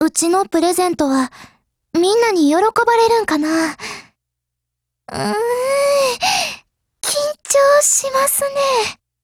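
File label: Christmas, Japanese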